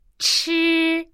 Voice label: "chi", Chinese

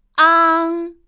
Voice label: "ang", Chinese

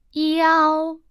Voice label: "iao", Chinese

